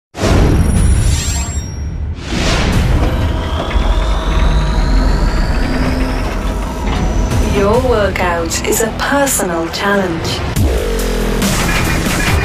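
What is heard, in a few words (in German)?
British English Werbung Dynamisch; Fremdtake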